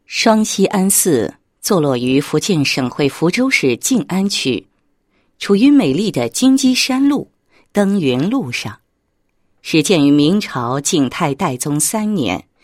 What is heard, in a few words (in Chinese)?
女 旅游宣传; 双溪庵寺宣传简介